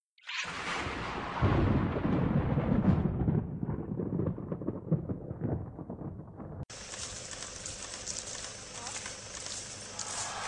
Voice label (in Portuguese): ANIV PARA NOIVA, telemensagem; noiva noivo